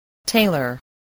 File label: "tailor", English